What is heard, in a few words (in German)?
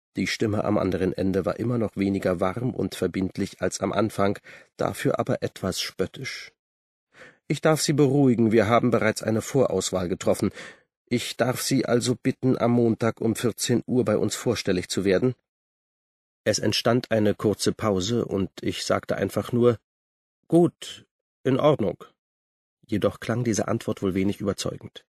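herzflimmern, Sprecher, Männer; Berlin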